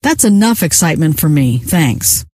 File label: enough excite, scientist female